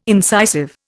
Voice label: "incisive", Indian